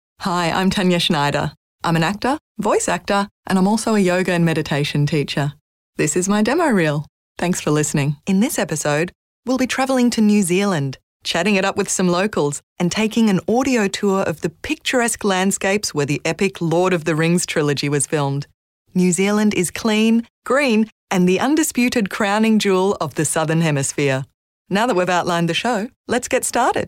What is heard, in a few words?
VO Narration